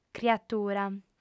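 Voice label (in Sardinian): "criatura", female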